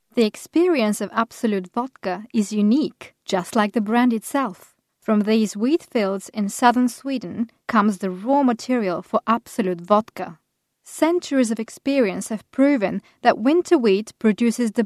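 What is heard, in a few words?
Bulgarian, English, eastern european; Female; 20s-30s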